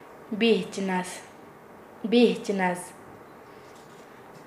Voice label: "cazador", Nasa Yuwe; paez